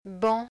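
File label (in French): "banc", French; ɑ̃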